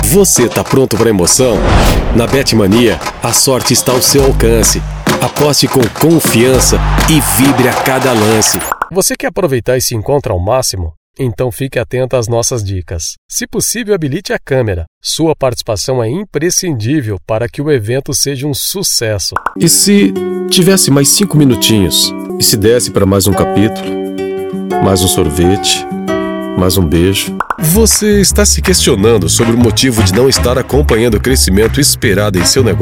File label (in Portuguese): brasil, portugues